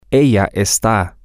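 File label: she is temporary, Spanish, male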